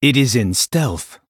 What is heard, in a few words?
Male Blood Elf ERROR STEALTH